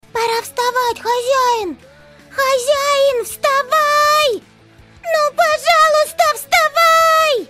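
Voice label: anime, time to get up, alarm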